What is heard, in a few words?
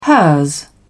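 "hers", British English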